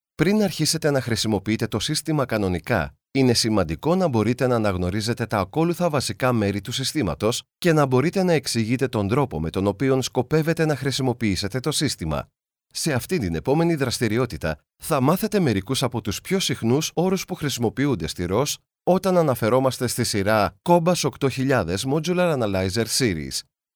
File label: male greek voice over talent narrative